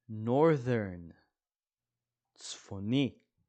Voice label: "northern" – "צפוני"